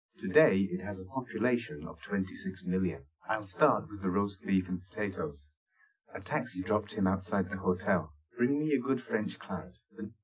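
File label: male speech; SiSEC10; underdetermined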